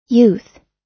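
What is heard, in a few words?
"youth", US English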